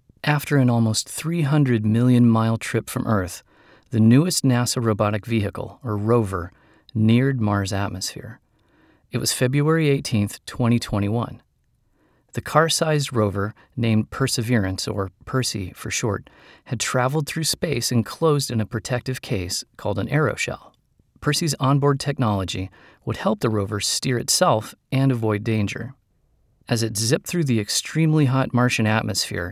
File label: Kids Science Sample